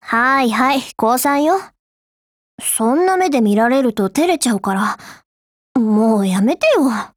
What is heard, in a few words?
DIALOGUE JP